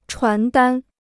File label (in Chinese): "传单", Chinese; 传单 (chuán dān); leaflet; flier; pamphlet